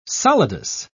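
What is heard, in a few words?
"solidus", English, British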